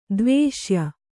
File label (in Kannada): "ದ್ವೇಷ್ಯ", Kannada